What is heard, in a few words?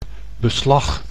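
"beslag", Dutch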